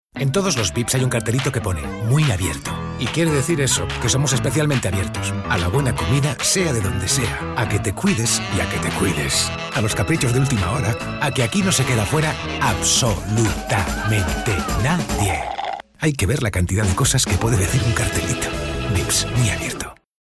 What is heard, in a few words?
male spanish snacks comercial; ideasonora